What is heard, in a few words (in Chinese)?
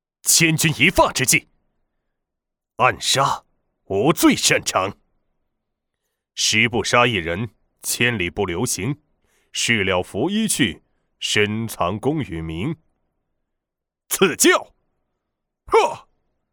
男 三国卡牌游戏角色